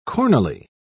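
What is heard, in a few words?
"cornily", British English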